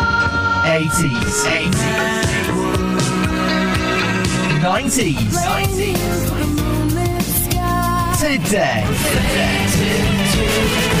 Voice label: Radio Imaging